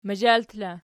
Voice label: "mazhalt", Archi

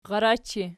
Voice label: "rarachi", Archi

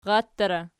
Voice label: "rattera", Archi

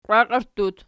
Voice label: "qiwakierttut", Archi

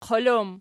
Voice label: "qol", Archi

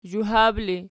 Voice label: "zhuhab", Archi

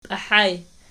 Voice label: "piahaj", Archi

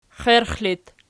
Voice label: xierx loc, archi

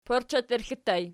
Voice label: "porcheterxli", Archi

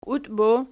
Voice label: "kiutbos pf", Archi

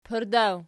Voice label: "pardaw", Archi

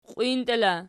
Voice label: "qiwintela", Archi

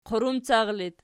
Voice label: "qurumcar", Archi

